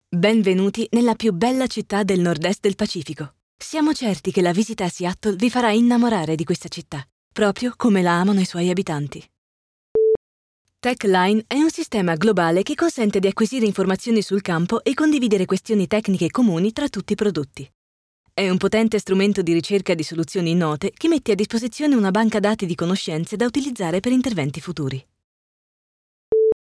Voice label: ITA mix short demos